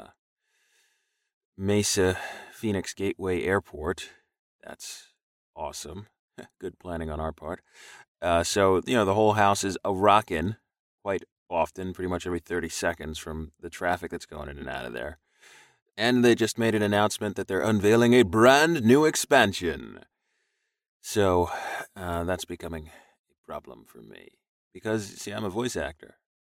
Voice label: planes trains dogs cows a morning rant